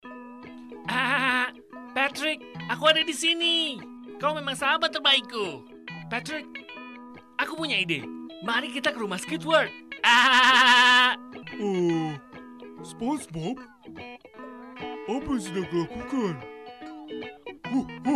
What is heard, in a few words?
indonesian animation